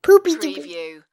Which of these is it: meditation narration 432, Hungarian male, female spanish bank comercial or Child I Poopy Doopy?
Child I Poopy Doopy